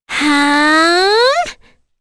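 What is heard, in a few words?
Vox Casting, English; Kirze; kingsraid; voices heroes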